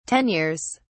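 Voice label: "tenures", British English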